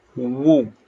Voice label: short voyels arabic alphabet